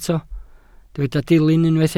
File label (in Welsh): "dilyn" Welsh